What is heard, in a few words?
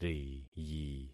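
"riyi", concatenated syllables; Affixing experiment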